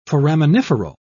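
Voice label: "foraminiferal", en, uk British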